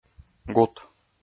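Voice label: "гут", Komi-Zyrian, male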